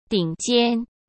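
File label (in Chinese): "顶尖", Mandarin Chinese; hsk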